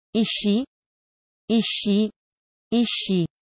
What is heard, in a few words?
Ishchi, russian dog commands